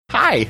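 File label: "hi", male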